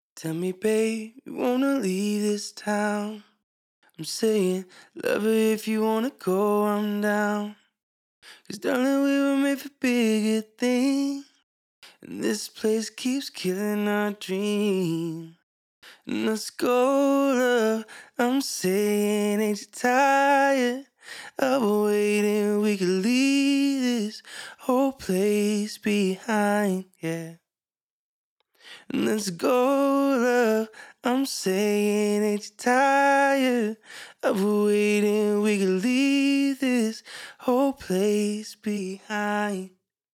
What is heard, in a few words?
dry vocal; vocal acrobatics